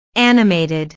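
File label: "animated", English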